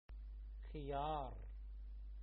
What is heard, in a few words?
Cucumber in arabic